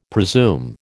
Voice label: "presume", American